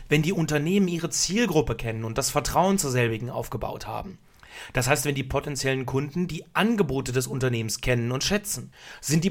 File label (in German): werbung